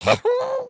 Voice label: talk scared